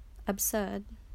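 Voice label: "absurd", Australian female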